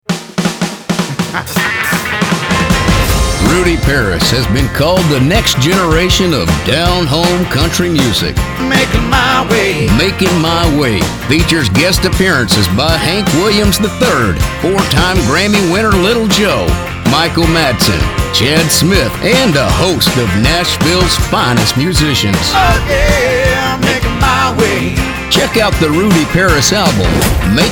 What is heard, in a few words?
Commercial